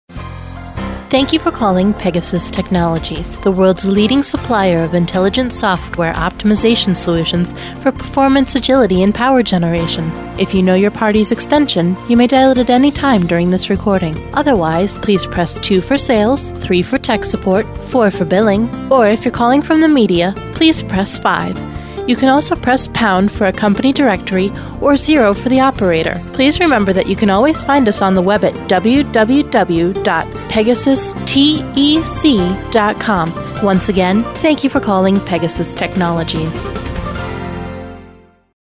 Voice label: Phone Greeting